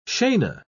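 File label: "scena", British English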